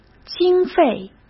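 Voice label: "经费", Mandarin Chinese; chinese-flashcards; hsk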